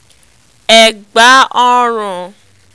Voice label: "egba orun", Yoruba